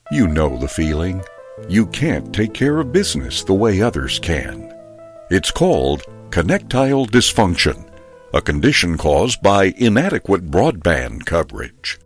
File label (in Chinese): 外籍英男 深沉大气广告